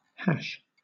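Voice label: "hash", British English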